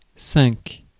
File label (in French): "cinq", Mots francais